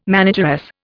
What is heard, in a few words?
"manageress", Indian